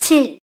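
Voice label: voice Korean, keytone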